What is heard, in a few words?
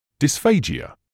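"dysphagia", British English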